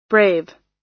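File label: "brave", US English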